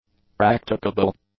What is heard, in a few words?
"practicable", english; adjectives